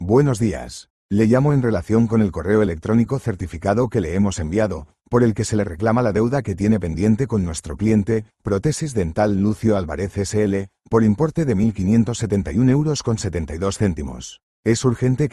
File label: audio call